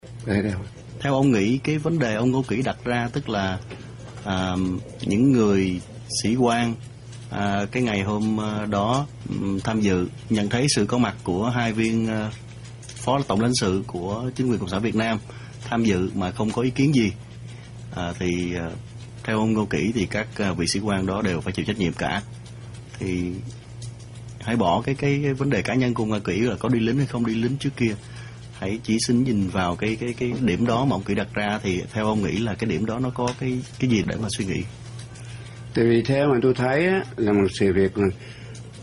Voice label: Vietnamese language males; asia

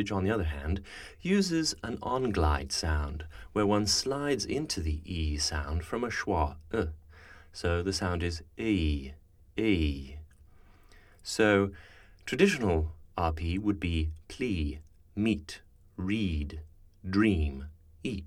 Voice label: Fleece, rp, speech, dialects

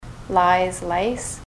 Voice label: lies lice, ontario

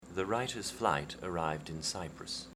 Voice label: writers flight arrived cyprus, rp; speech; dialects

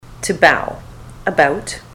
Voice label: to bow about, ontario

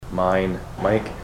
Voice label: mine, ontario

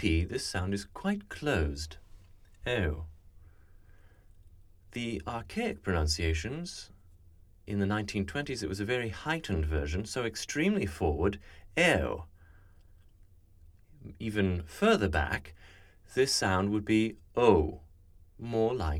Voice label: Goat, rp; speech; dialects

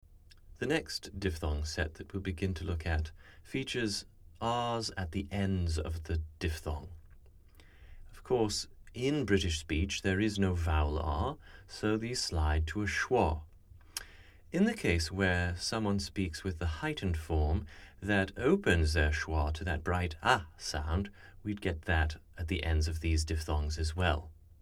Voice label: Near, RP; speech; dialects

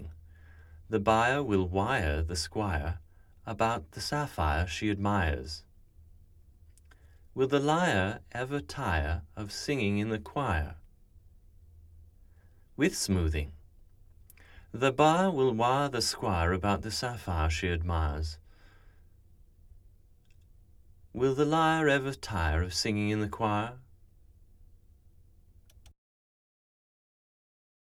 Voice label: Triphthongs Fire, RP; speech; dialects

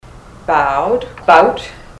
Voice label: bowed bout, ontario

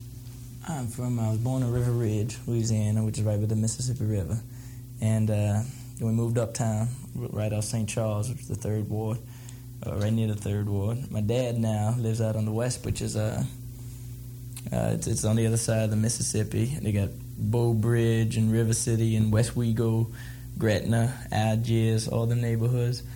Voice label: new orleans native; speech; dialects

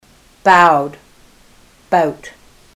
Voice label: bowed bout, ontario; mouth set

